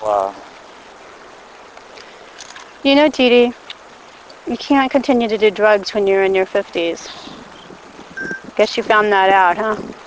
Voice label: whisperd